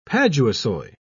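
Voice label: "paduasoy", British English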